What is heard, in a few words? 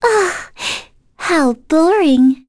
Vox Victory, en; Aisha; kings raid; voices heroes en